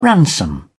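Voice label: "ransom", British English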